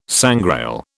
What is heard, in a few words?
"sangrail", British